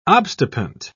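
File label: "obstipant", British English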